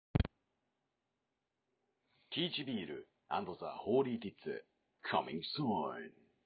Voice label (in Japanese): ラジオDJ風